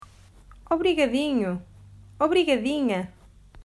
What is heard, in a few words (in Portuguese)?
"Obrigadinha", Portuguese